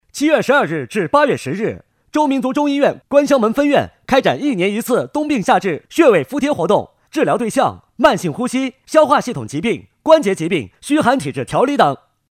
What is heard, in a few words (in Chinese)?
男声; 州中医院